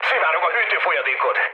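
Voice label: Hungarian damaged water mood med, speech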